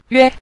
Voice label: "yue", Mandarin; 干; gān yue